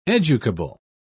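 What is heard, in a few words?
"educable", British English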